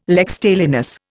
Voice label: "lex talionis", Indian

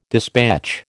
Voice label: "dispatch", American

